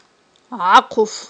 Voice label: "гIахъуф", Aghul